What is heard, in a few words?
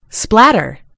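"splatter", British English; gcompris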